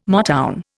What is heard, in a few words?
"Motown", Indian